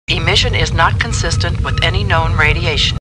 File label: Computer Voice; Star Trek; StarTrekLCAR